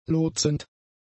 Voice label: "lotsend", German; adjectives